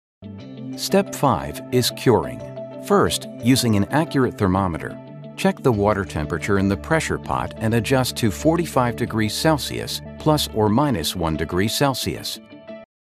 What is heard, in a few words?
eLearning Educated Instructional Articulate